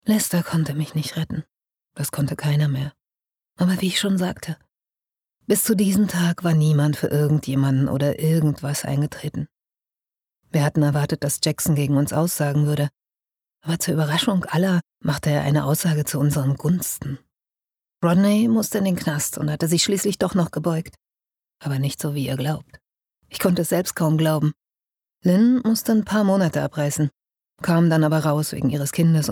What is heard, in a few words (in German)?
erzaehlung